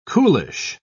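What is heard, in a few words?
"coolish", British English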